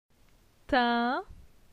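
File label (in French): "Tin", French; phonetique; la syllabe in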